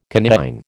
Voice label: "coniine", American